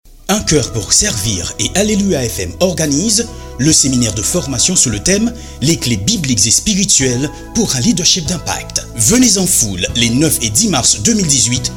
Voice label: Spot